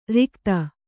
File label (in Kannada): "ರಿಕ್ಥ", Kannada